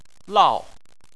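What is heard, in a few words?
"lao", Chinese, fourth tone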